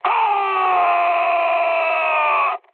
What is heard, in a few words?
damaged pilot, mood med, speech; War Thunder